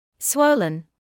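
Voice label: "swollen" English, British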